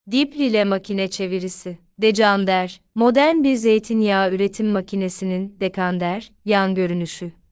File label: turkish